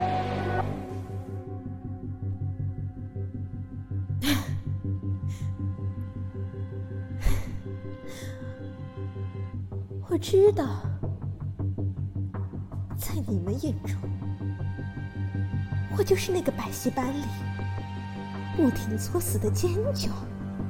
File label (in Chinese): 反派角色配音; 独孤曼陀